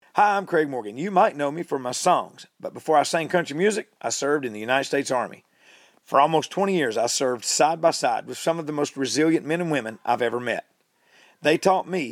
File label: radio eng; power of one